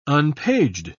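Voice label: "unpaged", British English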